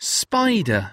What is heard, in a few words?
"spider", English word; pets